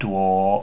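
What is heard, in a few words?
"duo", Mandarin Chinese pinyin; Mandarin Chinese Pronunciation; pinyin sounds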